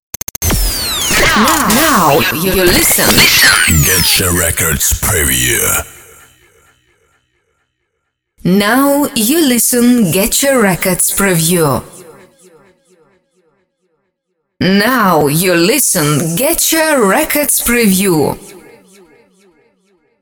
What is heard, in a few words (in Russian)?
Flash Двухголосный